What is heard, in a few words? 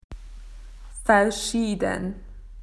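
"different", Australian English; PictureDictionary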